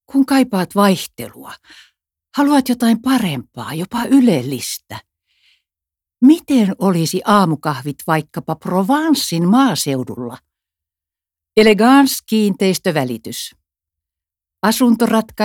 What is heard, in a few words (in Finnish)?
radio